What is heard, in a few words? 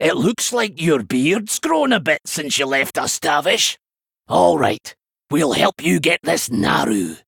Male Dwarf In Game Victory Pre Explosion